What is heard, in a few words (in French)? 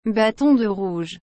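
"bâton de rouge", French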